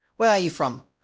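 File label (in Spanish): Voice Acting, es, female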